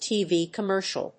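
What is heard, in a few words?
"TV commercial", English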